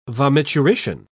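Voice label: "vomiturition", British English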